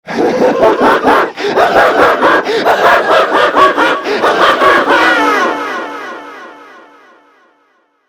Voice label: Taunt, Battle Barks; Warframe; Grineer Manic